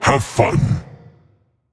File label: vox emote; smite